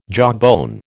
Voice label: "jawbone", American